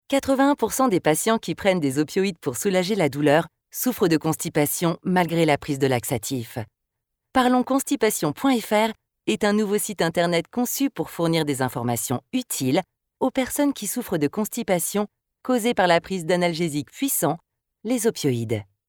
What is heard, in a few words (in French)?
spot médicale